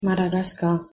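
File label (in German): "madagaskar", German; pronunciation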